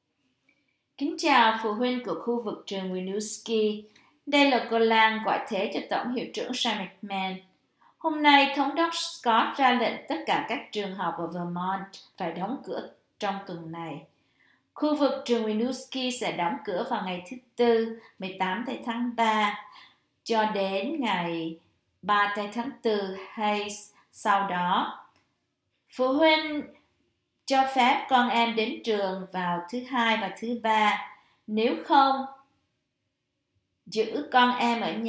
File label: vietnamese